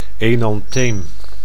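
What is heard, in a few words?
"enantheem", Dutch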